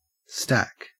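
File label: "stack", Australian English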